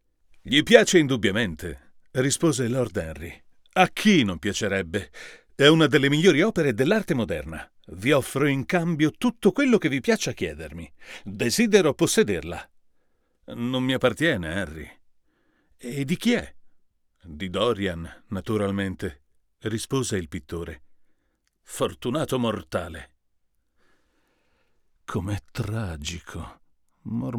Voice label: U87AI per Confronto TLM103

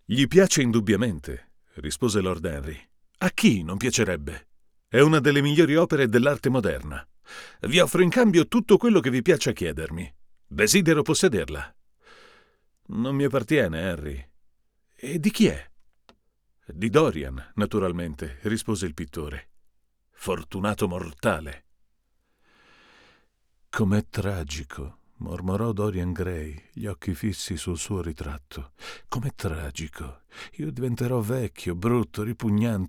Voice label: U87AI Per confronto Rode NTK

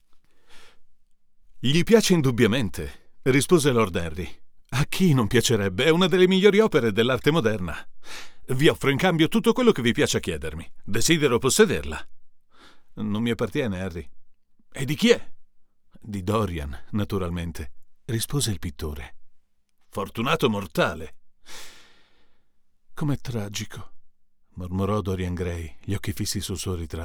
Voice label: Rode NTK mod Sovtec RK12 per confronto con U87

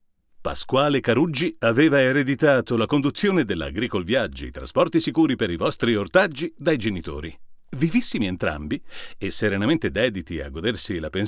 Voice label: U87Ai per confronto Reinassance APH47 capsula 47

